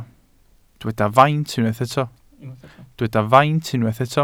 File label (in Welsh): "faint", Welsh